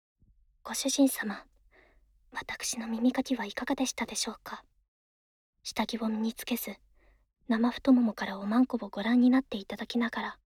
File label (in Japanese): ノーパン 淫語 耳かき, 効果音なし; ナナミさん、ノーパン淫語耳かきありがとうございました